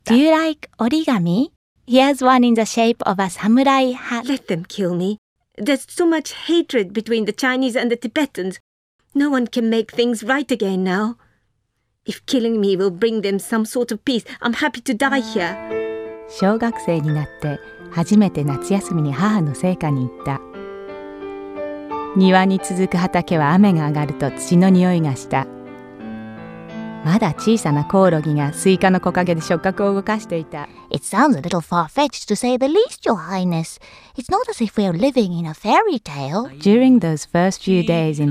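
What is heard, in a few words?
Japanese, Characters; GoLocalise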